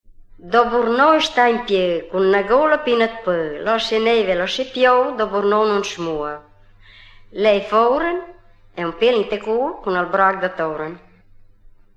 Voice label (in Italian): indovinello, romagna